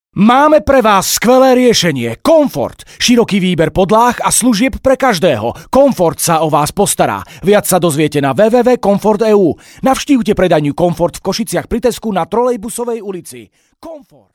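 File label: Slovak, male, commercial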